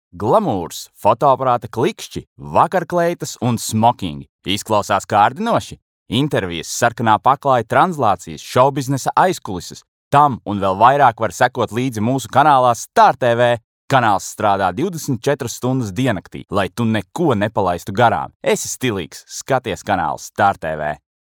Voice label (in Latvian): Reklāma; 2022